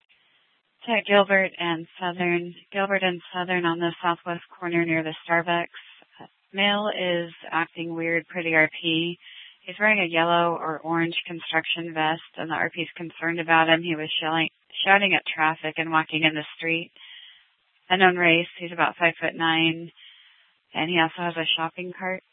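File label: police dispatch radio, scanner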